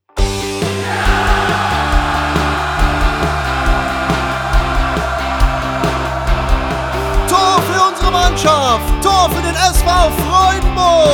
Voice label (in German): Jingle Stadionsprecher lange Version; Freudenburg